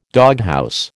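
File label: "doghouse", American English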